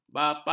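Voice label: "bar", Malay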